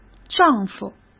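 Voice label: "丈夫", Mandarin Chinese; chinese flashcards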